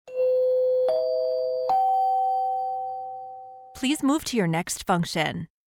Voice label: three chimes ascending please move to your next function